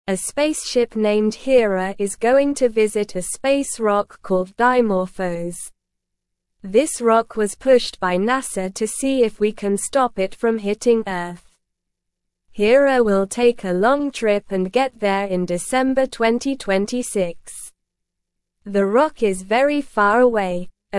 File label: English Beginner SLOW Reading; Spaceship Hera to Visit Space Rock Dimorphos in 2026